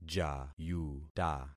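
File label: "jayuta", concatenated syllables; Affixing experiment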